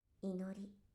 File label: title call; Dolby digital 5.1ch